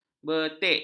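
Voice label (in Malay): "betik", Malay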